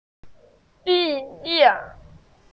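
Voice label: "bi4 ye4", Mandarin Chinese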